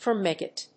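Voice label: "firmicute", English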